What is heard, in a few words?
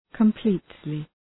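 "completely", English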